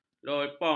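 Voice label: "lopong", Malay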